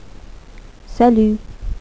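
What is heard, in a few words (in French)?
"salut", French; HASTA LUEGO O HOLA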